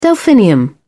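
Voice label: "delphinium", British English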